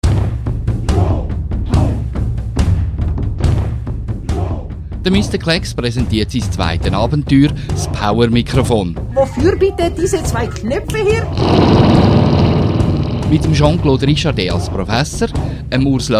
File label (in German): hoerspiel trailer